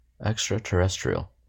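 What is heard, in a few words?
"extraterrestrial", US English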